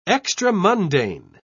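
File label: "extramundane", British English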